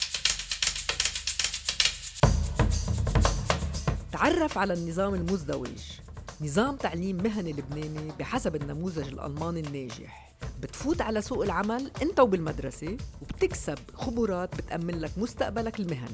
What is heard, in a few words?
Radio Ad Documentary Promotion